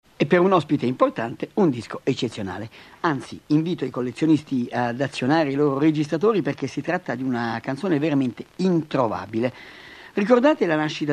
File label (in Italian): annuncio